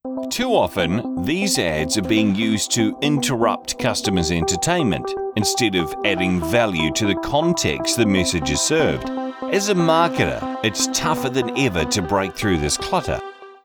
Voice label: english new zealand training e learning